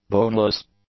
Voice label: "boneless", English; adjectives